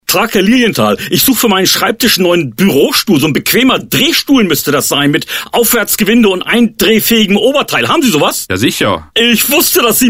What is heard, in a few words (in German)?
Spot